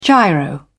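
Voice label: "giro", English, British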